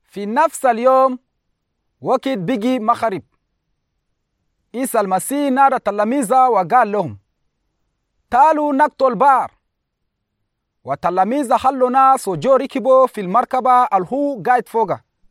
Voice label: Arabic Chadian; Jésus calme la tempête; Jesus Calms the Storm